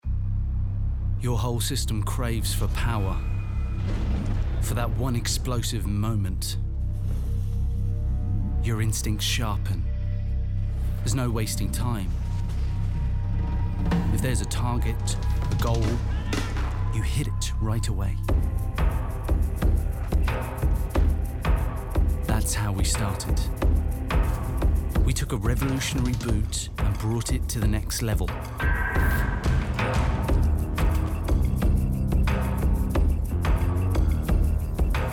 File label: Spot UK Akzent; Puma EvoPower